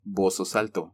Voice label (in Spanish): "Vos sos alto", Spanish